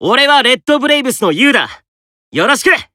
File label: Emo Warcry